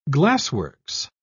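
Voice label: "glassworks", British English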